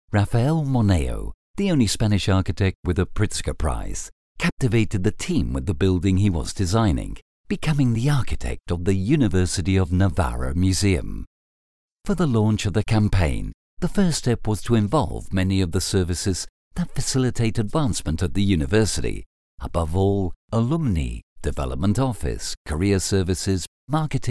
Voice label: locutor ingles english voiceover audioguide; English male voice over; navarra